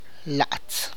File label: "flat part", tlacochahuaya